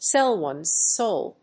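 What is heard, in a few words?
"sell ones soul", English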